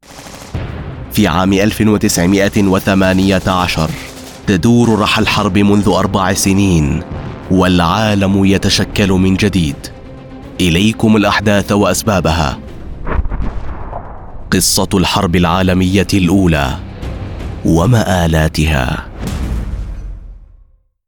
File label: World War Documentary Epic Standard Arabic